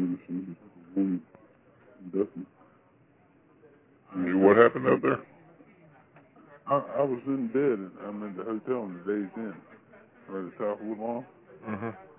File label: 911 call; E Woodlawn Rd